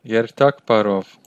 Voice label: bon voyage, armenian western